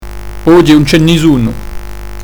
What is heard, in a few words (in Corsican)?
oghje un ce nisunu, corse